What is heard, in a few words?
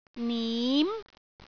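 "nim2", Cantonese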